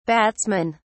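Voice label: "batsmen", British English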